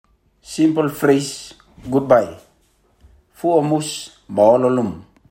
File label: Simple Phrase Goodbye Fuomus ma llum, Rotuman; 2022 Pacific Language Weeks